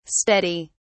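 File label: "steady!", British English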